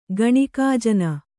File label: "ಗಣಿಕಾಜನ", Kannada